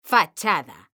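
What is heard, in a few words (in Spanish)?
"fachada", Spanish, female; mekanta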